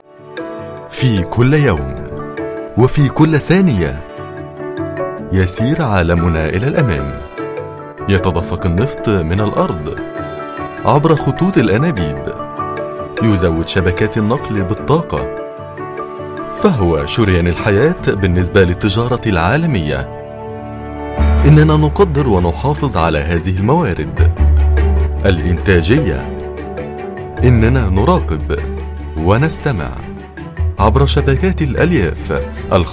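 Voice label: Arabic, Commercial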